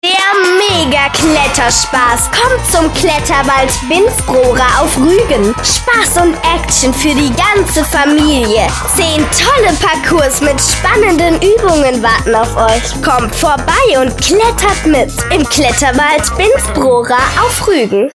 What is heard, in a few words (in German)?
Werbespot; Kletterwald Binz Prora; Ostseewelle